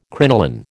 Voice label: "crinoline", American

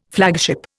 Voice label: "flagship", Indian

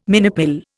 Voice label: "minipill", Indian

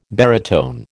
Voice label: "baritone", American

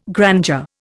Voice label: "grandeur", Indian

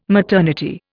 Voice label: "maternity", Indian

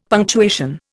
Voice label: "punctuation", Indian; Dictionary

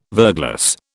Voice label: "verglas", British

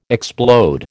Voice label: "explode", American

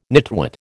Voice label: "nitwit", American

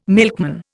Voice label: "milkman", Indian

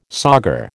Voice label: "sauger", American

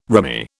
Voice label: "rimy", British

